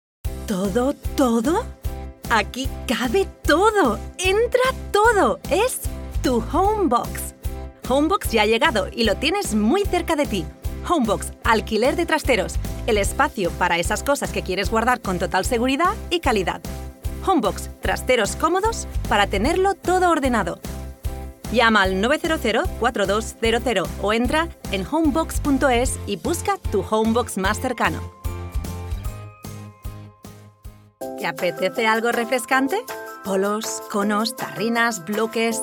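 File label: European Spanish, Commercial; GoLocalise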